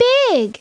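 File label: "big", English; Treasure Galaxy (1994); Learning Company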